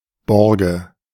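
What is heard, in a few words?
"Borge", German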